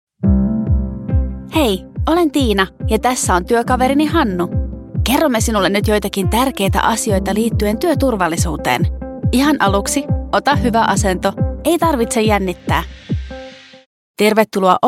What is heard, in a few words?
finnish female voice e-learning